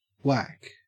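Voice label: "wack", Australian English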